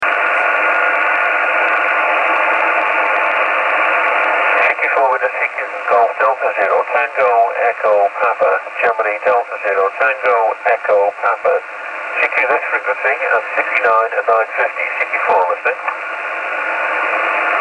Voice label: ssb, 70mhz